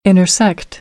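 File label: "intersect", American English; AmericanBritish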